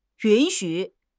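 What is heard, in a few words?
"yun3 xu3", Chinese; HSK4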